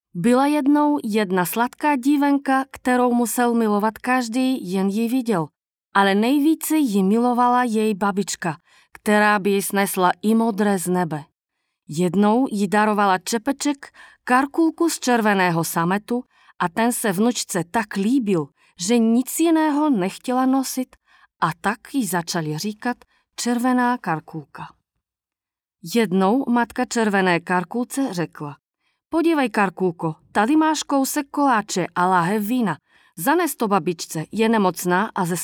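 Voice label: czech female narration; voice demos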